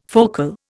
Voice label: "focal", Indian